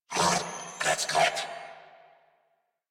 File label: Surprised Grineer Male; New War; Narmer